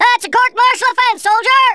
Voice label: coward, Drill Sergeant; Worms Armageddon